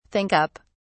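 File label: "think up", British English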